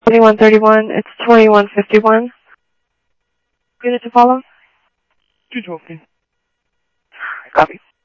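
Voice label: police department, scanner audio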